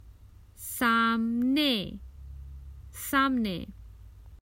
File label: Common Hindi Phrases for travel Across from